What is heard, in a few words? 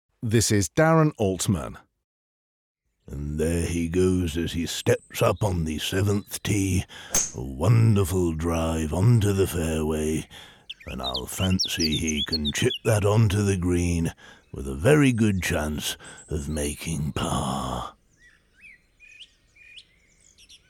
Golf, impression voiceover; peter alliss impression voiceover; Golf demo